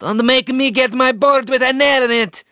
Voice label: Male; Hit&Run

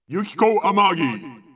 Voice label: Unused Announcer; P4A; Yukiko Amagi

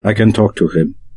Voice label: Unused Airport VOIP Conversation

